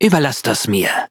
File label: loading, de; Cookie Run